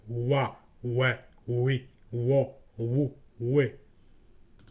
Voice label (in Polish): "ł", fonetyka